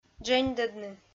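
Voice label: "дженьдӧдны", Komi, female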